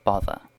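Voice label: "bother", British English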